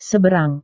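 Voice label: "seberang", Indonesian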